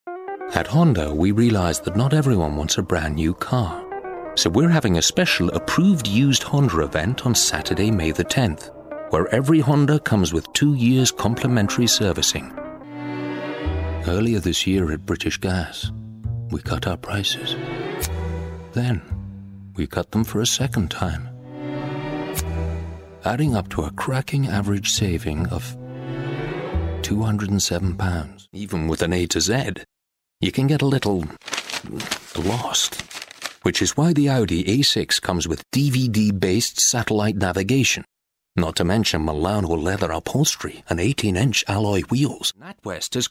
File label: commercials